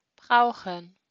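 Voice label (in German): "brauchen", German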